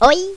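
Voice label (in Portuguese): "oi", Portuguese; GEOGRAFIA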